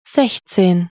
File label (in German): "sechzehn" deutsch